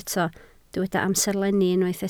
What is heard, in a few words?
"amserlenni", Welsh